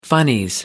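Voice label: "funnies", British English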